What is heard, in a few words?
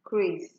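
"crase", Nigerian Pidgin, female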